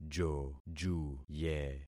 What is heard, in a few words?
"jojuye", concat syllables; Affixing experiment